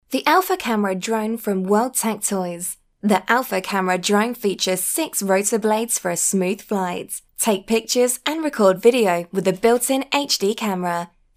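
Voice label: english female; lektoring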